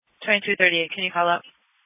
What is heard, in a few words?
Police Dispatch